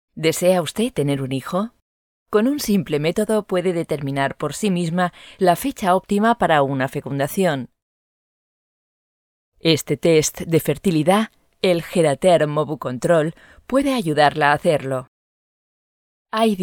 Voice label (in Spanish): es company; Geratherm